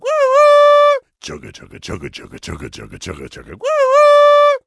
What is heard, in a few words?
Orc Male Choo Choo